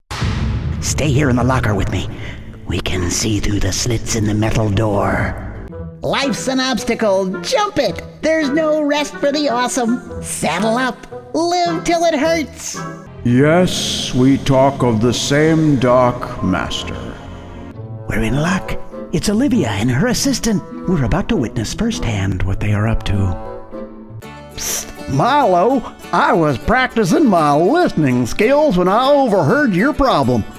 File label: Animation